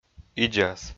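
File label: "идзас", Komi, male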